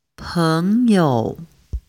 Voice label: chinese pronunciation en eng